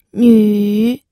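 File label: "nü", third tone, pinyin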